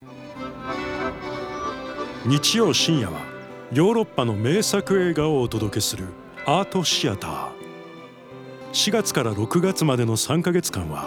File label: Movie introduction